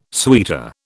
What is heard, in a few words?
"suitor", British